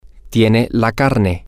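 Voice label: you have the meat, Spanish, male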